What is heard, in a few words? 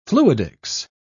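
"fluidics", British English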